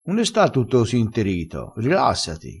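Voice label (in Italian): "interito", vocabolario dialettale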